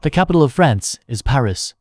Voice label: en male; KE-Omni